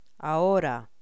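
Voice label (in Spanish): "ahora", Spanish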